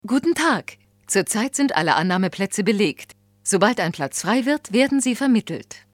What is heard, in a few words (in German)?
deutsch, Telefonansagen, weiblich